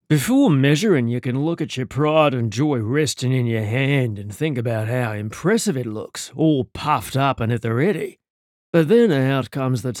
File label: size australian; ACCENTS & DIALECTS